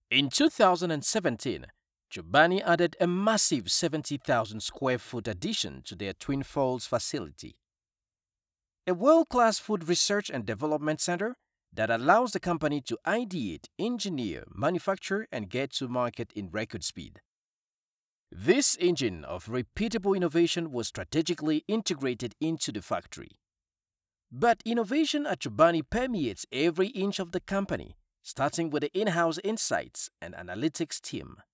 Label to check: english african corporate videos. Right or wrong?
Right